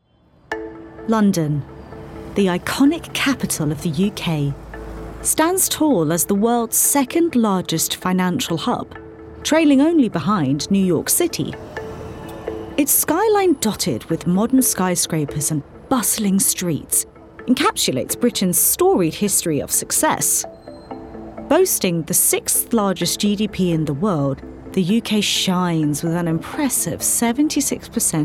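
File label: female English homelessness in the UK documentary; english northern accents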